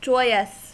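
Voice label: "joyous", American English; pronunciations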